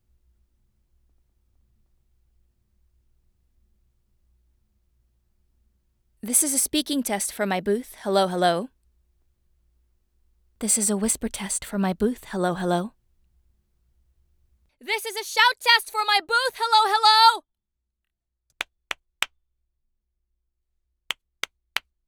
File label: raw booth sample